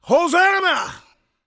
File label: PREACHIN, Gospel, PREACHER